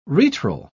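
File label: "retral", British English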